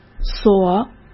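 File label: "所", Mandarin Chinese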